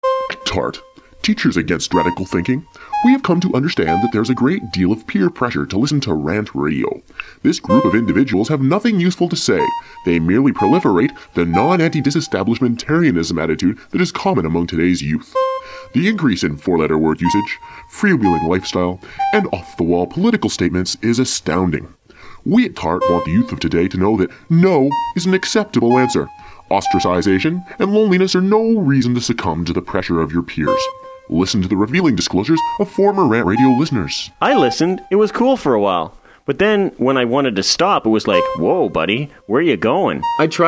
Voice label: Promo; Protect Children; RantRadio; Station Promos-In House

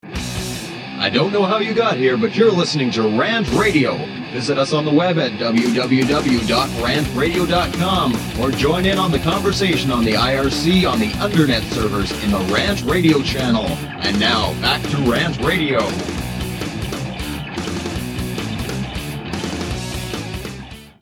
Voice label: Promo Station ID; RantRadio; Station Promos-In House

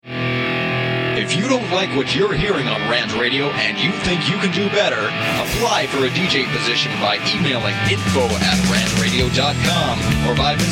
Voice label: Promo Apply 4 DJ; RantRadio; Station Promos-In House

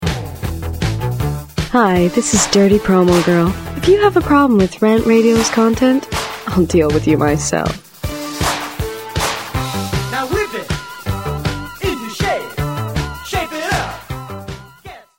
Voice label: Promo Dirty Promo Girl; RantRadio; Station Promos-In House

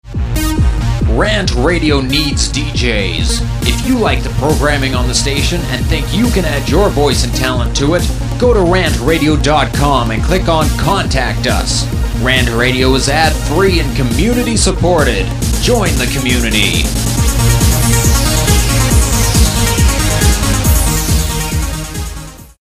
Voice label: Promo industrial psa; RantRadio; Station Promos-In House

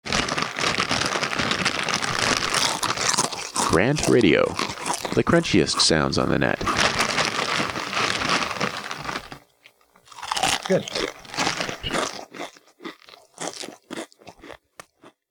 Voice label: Promo; RantRadio; Station Promos-In House

